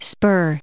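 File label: "spur", US English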